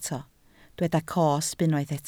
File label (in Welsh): "cosb", Welsh